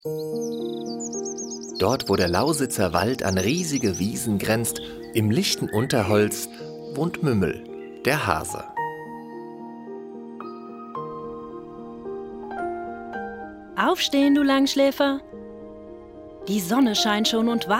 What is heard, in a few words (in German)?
Hoerbuch Vorschau